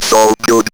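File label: ROBVOX, robot voice; E-Lab; X Static Goldmine 1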